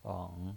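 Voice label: "eng" Mandarin Chinese, third tone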